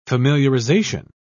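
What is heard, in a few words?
"familiarisation", English, British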